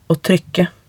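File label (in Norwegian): "å trykke", Norwegian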